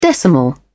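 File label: "decimal", English, British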